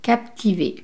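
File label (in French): "captive", French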